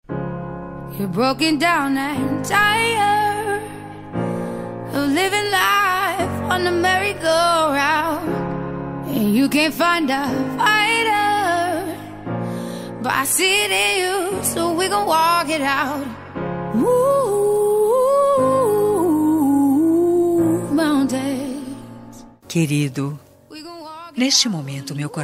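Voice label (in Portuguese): aniversário, feminina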